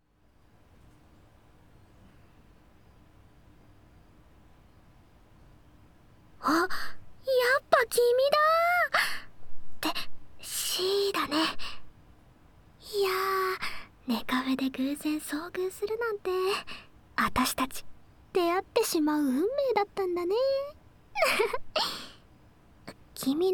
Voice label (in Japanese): ネカフェヒソヒソ話ASMR; あー、バレちゃった？あたしの秘密